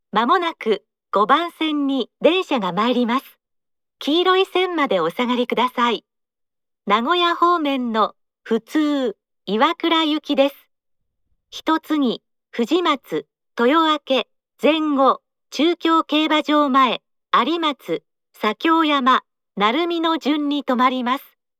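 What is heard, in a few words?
approach local, station announce; iwakura; meitetsu; chiryust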